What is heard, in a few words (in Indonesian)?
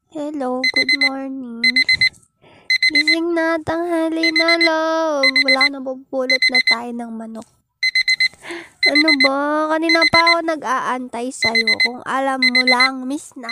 nada alarm lucu hello good morning; Viral TikTok